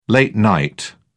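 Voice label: "late night", British English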